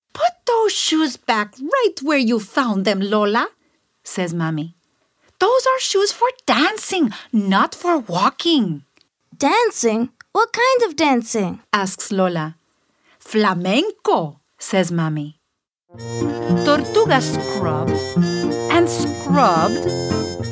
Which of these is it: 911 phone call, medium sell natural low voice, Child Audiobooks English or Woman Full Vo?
Child Audiobooks English